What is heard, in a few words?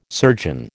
"surgeon", American